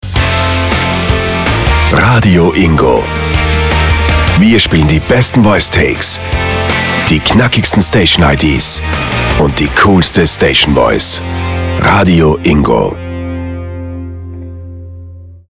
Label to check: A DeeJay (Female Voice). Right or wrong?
Wrong